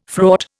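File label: "fraught", Indian English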